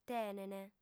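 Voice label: "tenent", female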